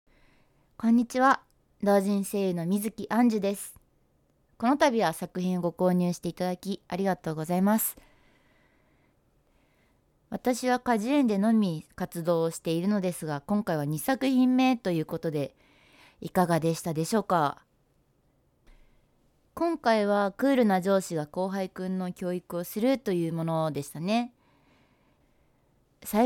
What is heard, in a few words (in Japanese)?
フリートーク